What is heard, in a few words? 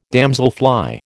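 "damselfly", American English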